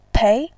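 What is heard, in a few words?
"pei", Mandarin, tone 2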